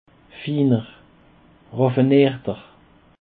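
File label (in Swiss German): "finer", Alsatian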